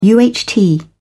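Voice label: "uht", British English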